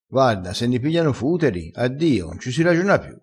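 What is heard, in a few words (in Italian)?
"futeri", vocabolario dialettale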